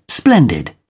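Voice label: "splendid", British English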